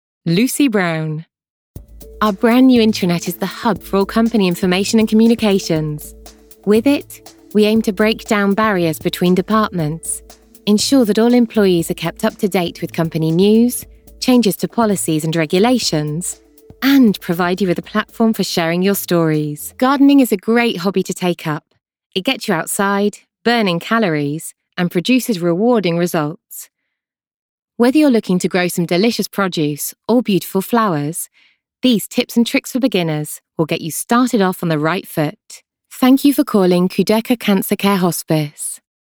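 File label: Corporate, Female Voice Over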